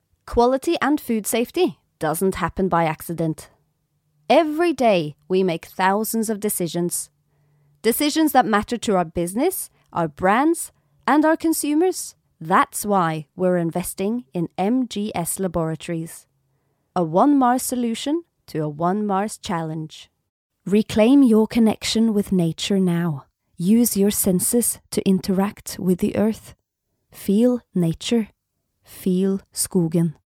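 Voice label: Norwegian, English, female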